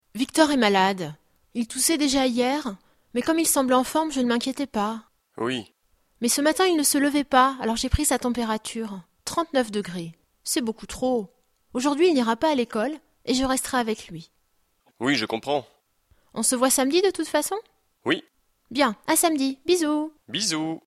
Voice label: dialogue, French